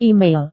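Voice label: "email", Indonesian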